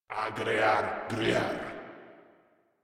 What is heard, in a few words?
Patrol Grineer Male, Barks, Battle